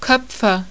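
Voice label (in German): "koepfe" German; ipa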